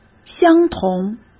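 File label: "相同", Mandarin Chinese; chinese-flashcards; hsk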